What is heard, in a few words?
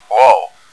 whoa, bot; cstrike